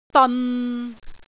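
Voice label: "deon6", Cantonese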